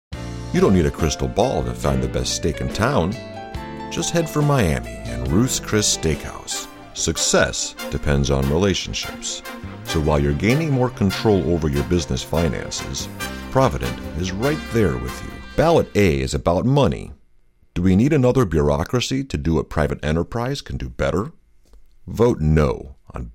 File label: Spots